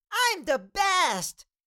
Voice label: Cartoon little child voice i am the best

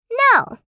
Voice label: "No" vocalization girl child kid

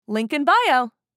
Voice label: Link in bio social media female

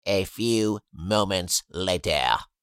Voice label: A few moments later meme voice male; Meme Sounds; social media

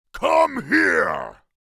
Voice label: Orc creature dialogue come here

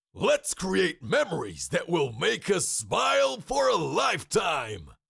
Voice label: Cartoon lion voice; lets create memories

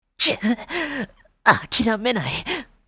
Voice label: I will not give up anime boy character

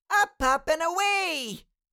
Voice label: Cartoon little child voice; up up and away; cartoon sound effects

